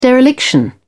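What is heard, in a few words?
"dereliction", English, British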